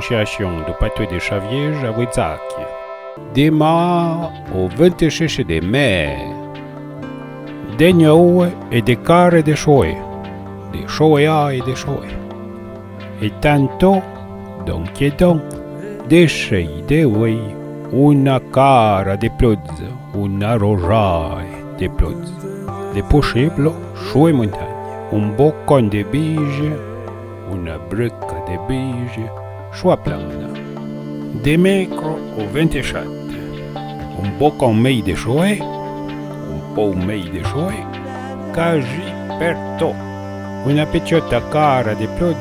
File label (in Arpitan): meteo avouec musique; meteo-24-28-mars